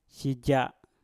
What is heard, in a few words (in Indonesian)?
"sija" menggali, Mpur